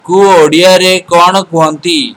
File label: how to say odia